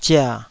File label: "zia3", Taiwanese syllable